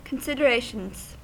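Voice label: "considerations", American English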